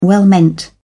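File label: "well meant", British English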